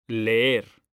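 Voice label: "leer", Spanish, male; mekanta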